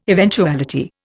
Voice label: "eventuality", Indian